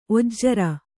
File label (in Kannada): "ಒಜ್ರ", Kannada